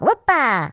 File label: Jump, Russian; Russian pl